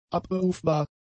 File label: "abberufbar", German; adjectives